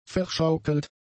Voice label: "verschaukelt", German; adjectives